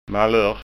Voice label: "malurt", skanska

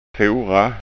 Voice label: "fora", skanskaord

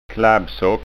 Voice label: "klabbsock", skanska

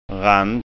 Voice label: "rant"

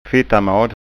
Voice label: "fittamad", skanska ord

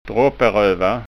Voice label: "dropperova", skanska

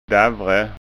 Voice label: "davre", skanskaord

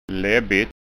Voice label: "labbigt", skanskaord

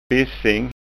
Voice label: "bissing", skanska ord

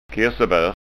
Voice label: "kirsebar", skanska

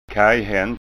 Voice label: "kajhant", skånska ord

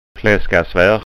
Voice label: "flaskasvar", skanska ord

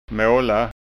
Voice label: "mala", skånska

